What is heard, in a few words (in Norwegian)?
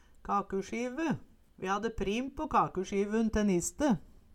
kakusjive, Numedalsmål